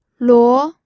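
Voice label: "luo", second tone, pinyin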